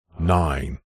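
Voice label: "nine", radio production male voice processed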